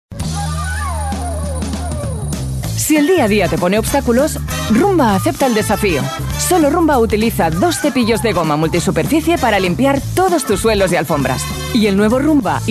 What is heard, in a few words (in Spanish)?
Femenino